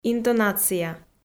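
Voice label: "интонация", Komi-Zyrian, female; audio dict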